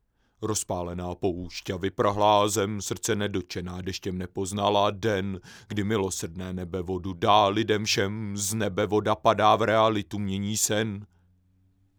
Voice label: mic test, CMV563